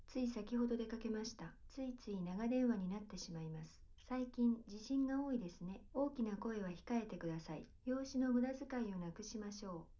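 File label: female, live recording, 250 ms, 5 cm; SiSEC11; underdetermined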